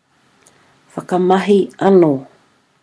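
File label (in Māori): "whakamahi ano", Māori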